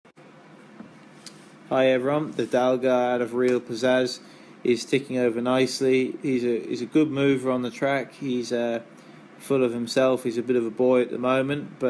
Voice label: voice note; DalgharReal Pzazz